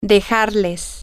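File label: Spanish, female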